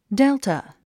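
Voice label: "delta", English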